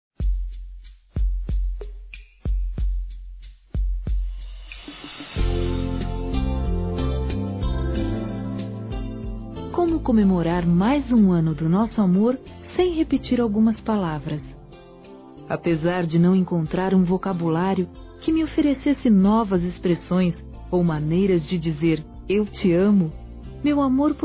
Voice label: CASAM. ROMANT. F; Aniversários; ANIVERSARIO romantico; fem

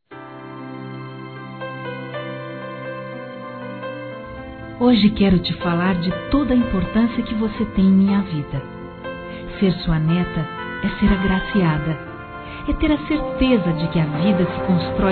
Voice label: Aniversário Avô Feminino; Aniversários; Aniv Familiar

